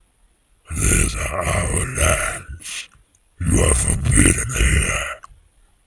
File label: Orc Voice